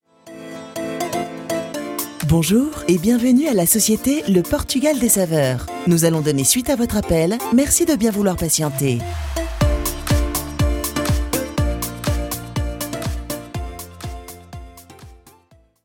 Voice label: Message Prédécroché; LE PORTUGAL DES SAVEURS

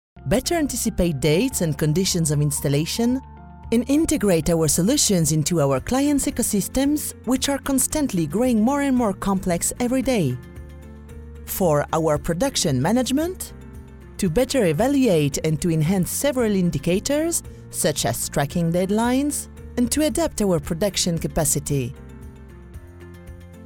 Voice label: anglais, motion design explicatif droit; PARKEON

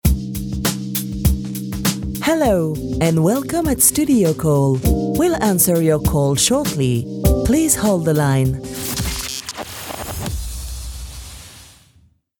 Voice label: anglais, message d'attente; STUDIOCALL

